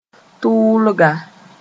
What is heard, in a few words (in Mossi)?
"tʋʋlga", moore; hotquick